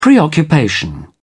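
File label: "preoccupation", British English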